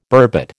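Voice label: "burbot", American English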